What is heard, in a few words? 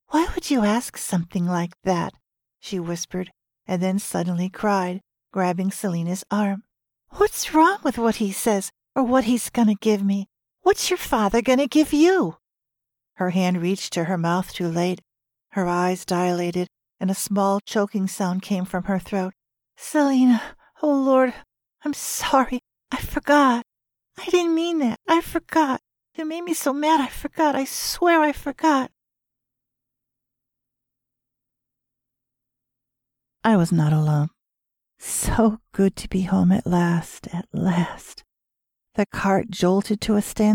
Audiobook Fiction Auto Bio Self Help Non Fiction